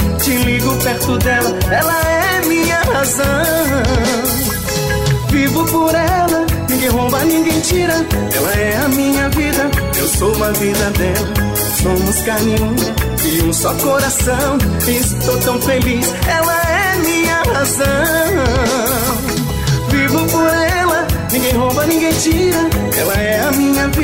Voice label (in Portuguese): amigo locutor